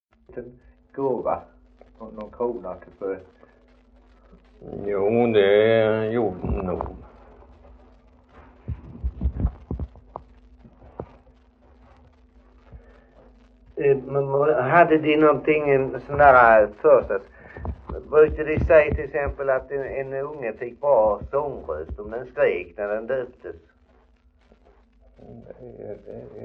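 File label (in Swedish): Idala Halland, dialekter; Folkminnen; Svenska sagor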